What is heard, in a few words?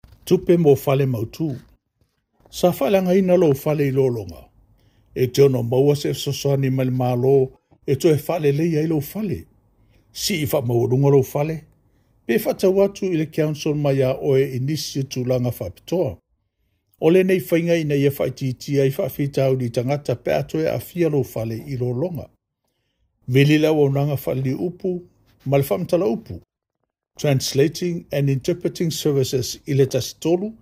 samoan